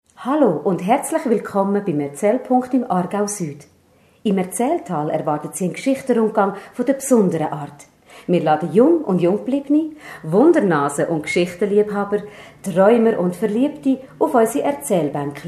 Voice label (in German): Werbespot; Erzaehltal; Burg